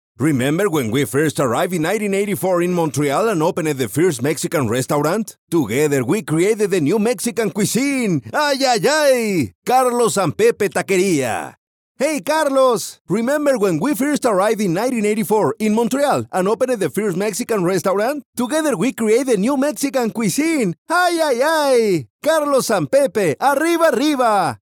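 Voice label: Spanish English commercial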